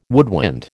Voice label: "woodwind", American

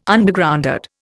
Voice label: "Undergroundad", Indian

